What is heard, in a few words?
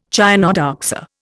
"chionodoxa", Indian